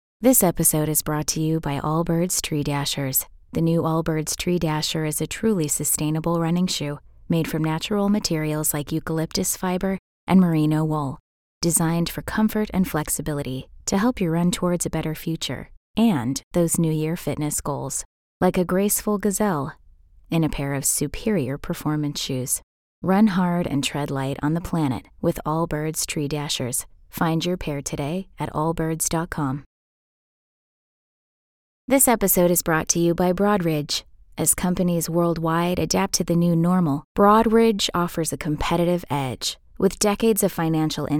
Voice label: english american podcasts